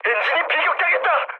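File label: Korean damaged engine mood med; War Thunder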